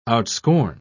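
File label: "outscorn", British English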